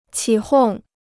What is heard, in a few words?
"起哄", Chinese